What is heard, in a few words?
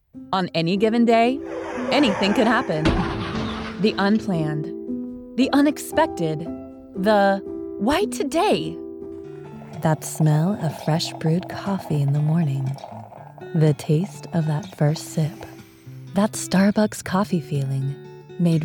VO Commercial